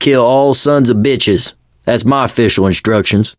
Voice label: chat; l4d2; ellis

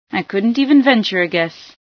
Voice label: no guess, female sci

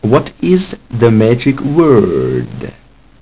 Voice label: word machine speech

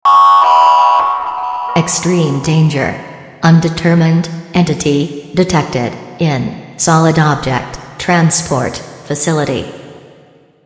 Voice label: announcement; sven; soiz

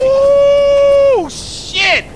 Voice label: Cheer; Lamar Davis

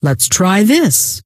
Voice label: lets try this, scientist female

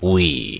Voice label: "we", word

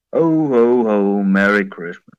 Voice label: merry christmas, bear; sven; santa bear

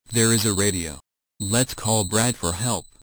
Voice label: radio; sven; cyrex

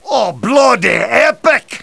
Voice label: cheer; tf2demoman

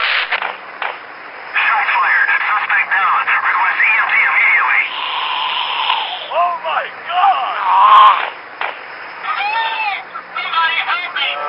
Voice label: cop distress; theyrhungry

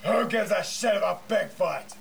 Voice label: Chat

